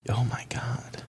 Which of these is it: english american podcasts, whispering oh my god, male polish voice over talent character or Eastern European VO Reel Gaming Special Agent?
whispering oh my god